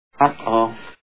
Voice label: uh oh